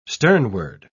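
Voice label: "sternward", British English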